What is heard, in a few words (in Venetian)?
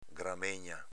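"gramegna", Veneto dialect; s.f. gramigna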